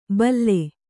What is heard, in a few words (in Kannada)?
"ಭಲ್ಲೆ", Kannada